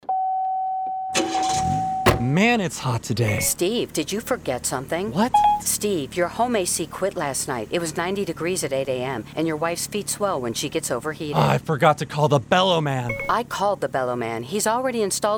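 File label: Car Navigation RADIO SPOT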